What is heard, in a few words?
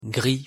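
"gris", French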